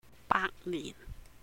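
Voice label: "Baak Nihn", Cantonese; hundred years; 百年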